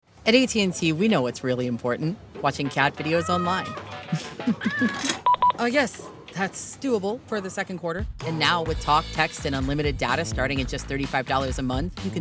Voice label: Commercial, en-AU